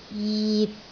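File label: "jit6", Cantonese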